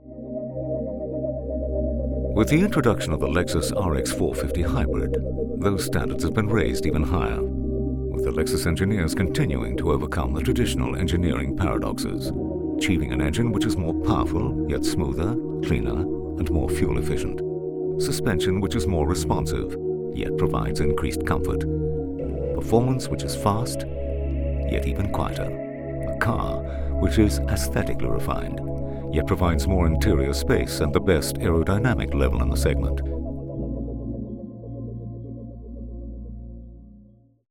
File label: english scottish corporate videos